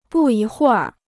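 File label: "不一会儿", Chinese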